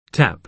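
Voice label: "tap", American English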